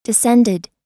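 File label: "descended", US English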